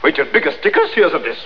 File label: male taunt; starsiege